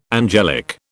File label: "angelic", British